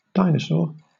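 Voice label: "dinosaur", British English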